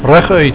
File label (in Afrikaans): "reguit", afrikaans